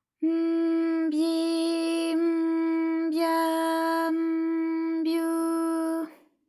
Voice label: by m byi m bya m byu, JPN; ALYS